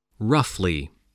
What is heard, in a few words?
"roughly", English spelling